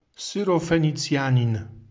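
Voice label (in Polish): "Syrofenicjanin", Polish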